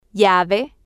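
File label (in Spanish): "llave", spanish, female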